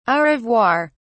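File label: "au revoir", British English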